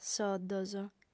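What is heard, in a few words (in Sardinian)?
"soddos", female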